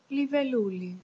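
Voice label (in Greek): dragonfly desc greek; insects; cartoon; tuxpaint-stamps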